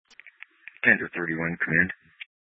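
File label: Incident Tac 2, Scanner audio recording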